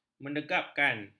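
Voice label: "menegapkan", Malay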